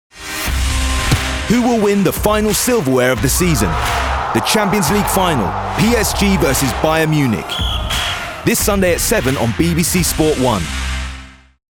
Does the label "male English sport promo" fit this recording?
Yes